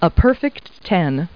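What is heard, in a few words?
woman; Talking Clip Words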